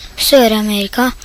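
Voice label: "South America", Norwegian; gcompris; geography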